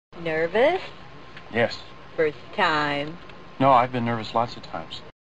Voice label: nervous

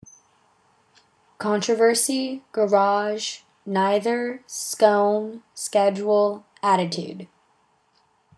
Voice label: houston texas female

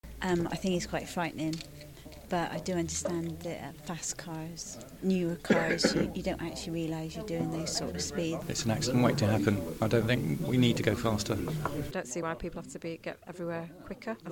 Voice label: voxpop

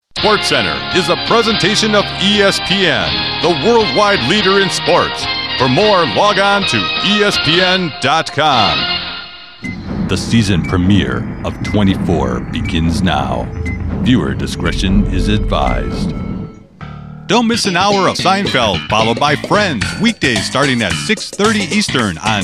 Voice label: television promos

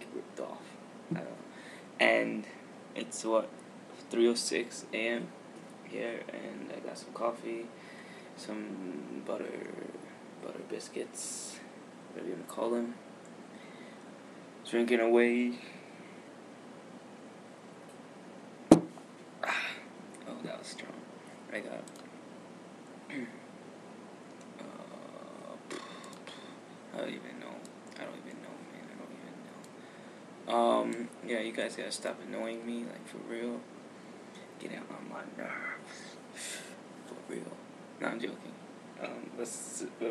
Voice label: my dying cow voice

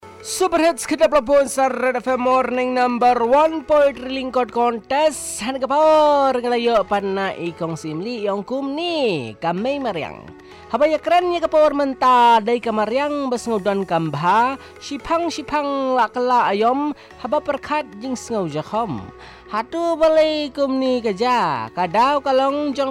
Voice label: short poem on random throwing of garbage

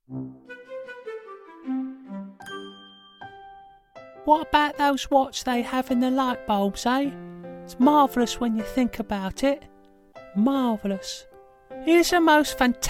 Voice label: kids voice; Teletubbies

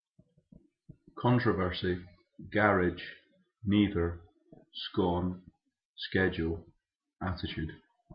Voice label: Edinburgh Scotland male

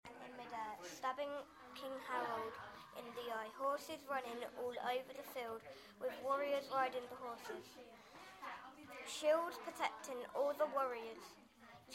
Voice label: poetry reading; battle of hastings 1066

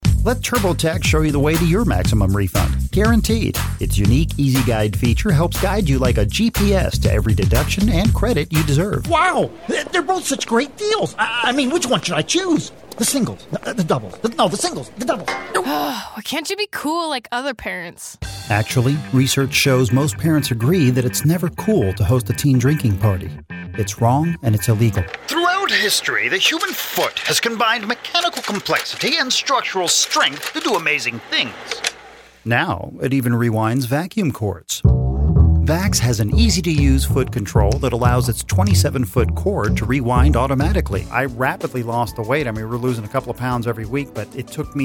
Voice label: commercial

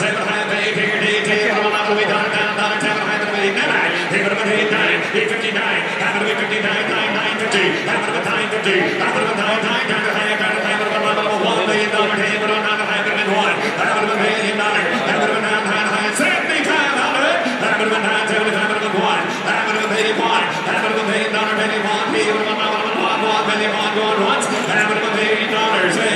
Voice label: auctioning a Mercedes Benz 300 SL Gullwing

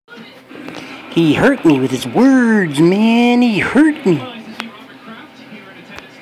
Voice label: hurt

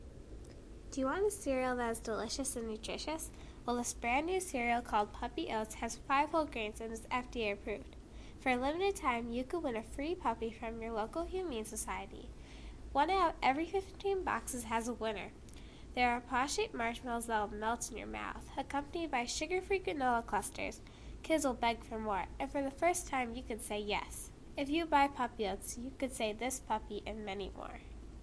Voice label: fake ad; puppy oats

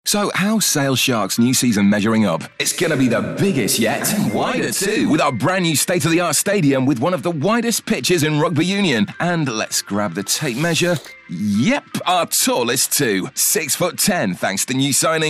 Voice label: radio ad; Key 103; sharks v leinster

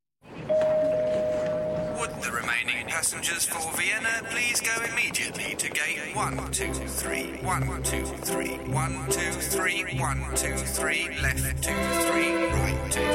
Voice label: waltz radio ad

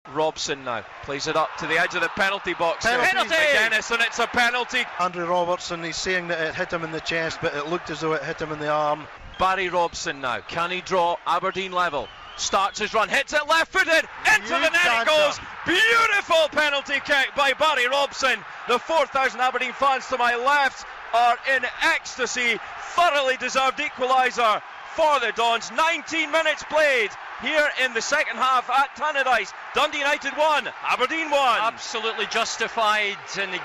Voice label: tv commentary

